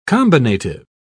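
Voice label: "combinative", British English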